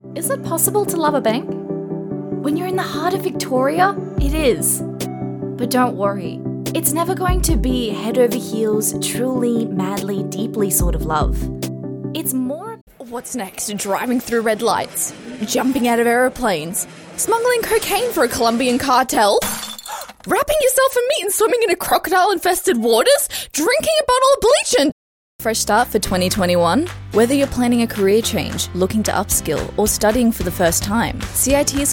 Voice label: AUS Female; Studio Nonagram